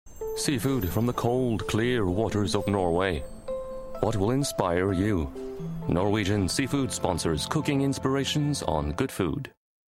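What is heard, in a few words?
Norwegian accented English; GoLocalise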